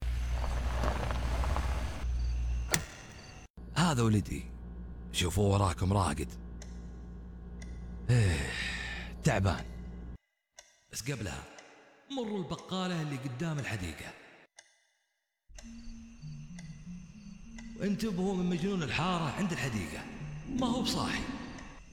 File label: arabic video games